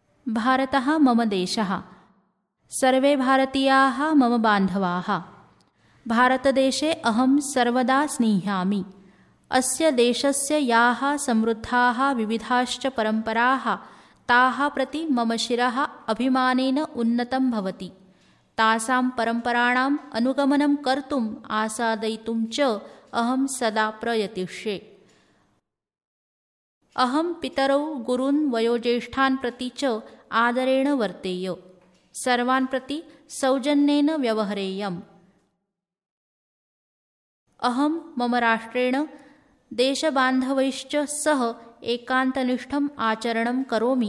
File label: Sanskrit Pratigya